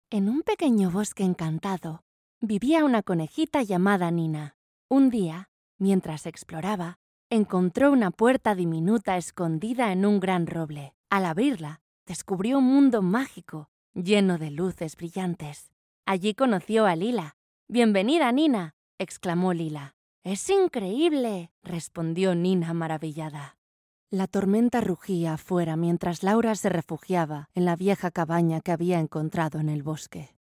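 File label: Spanish Spain female narrative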